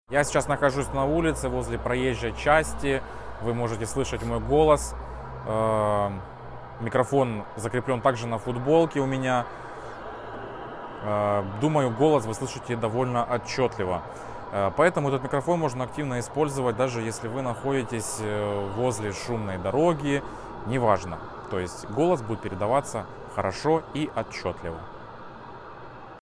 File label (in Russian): sennheiser xs lav test na ulice; Тест на улице